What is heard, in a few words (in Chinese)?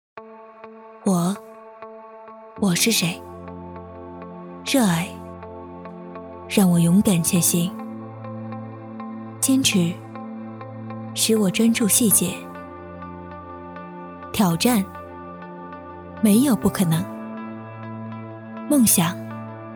女 广告 高冷酷帅科技风